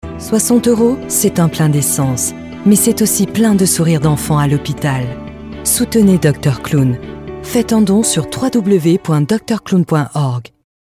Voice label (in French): Voix Off, voix off feminine; Association Docteur Clown